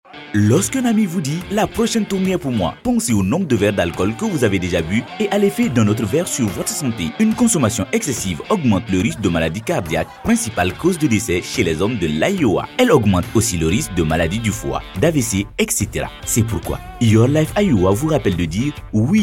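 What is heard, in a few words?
MALE, Radio Spot; Male 2; French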